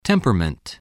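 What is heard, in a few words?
"temperament", English word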